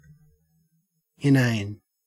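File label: "inane", Australian English; pronunciations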